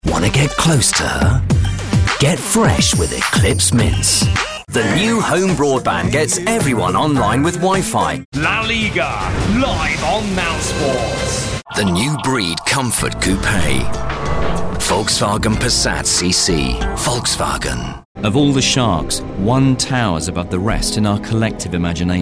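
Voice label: British English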